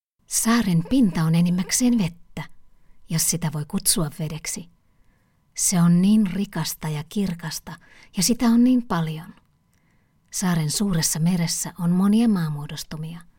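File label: Reading, Finnish, female, audiobook; Audiobooks; Ancient Island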